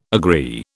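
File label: "agree", British English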